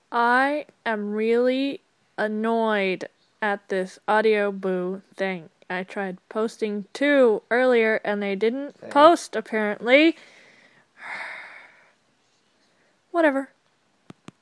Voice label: annoyed